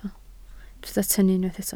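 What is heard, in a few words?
"tynnu" Welsh, spoken word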